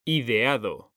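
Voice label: "ideado", Spanish, male; mekanta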